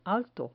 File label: "alto", Spanish